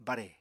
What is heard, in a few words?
"bare", Apatani